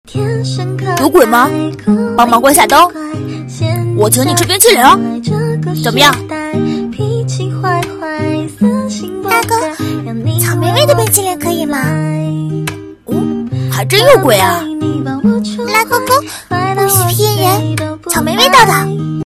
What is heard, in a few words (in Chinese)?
正太萝莉